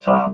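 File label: "top", robot voice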